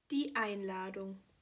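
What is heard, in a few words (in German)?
"Einladung", German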